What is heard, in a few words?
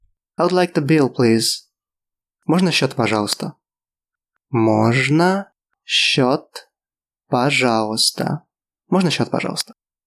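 Russian I'd like a bill please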